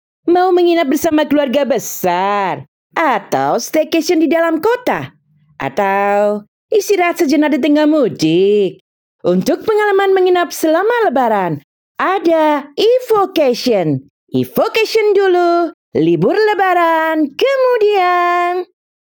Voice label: CASUAL INDONESIA